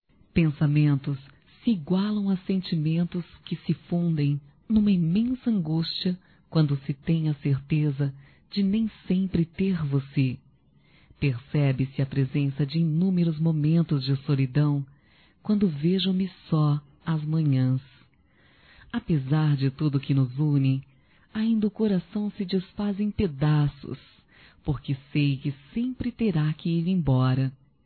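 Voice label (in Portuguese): Feminino; Amor Proibido Feminiino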